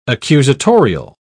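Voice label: "accusatorial", British English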